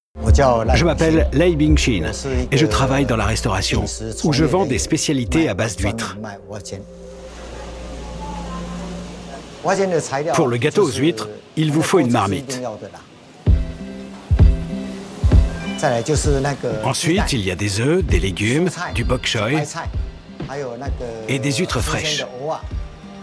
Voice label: Voice over; Midnight Asia